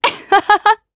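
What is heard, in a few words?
Vox Happy, Japanese; Glenwys; kingsraid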